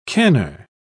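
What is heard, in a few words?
"canner", British English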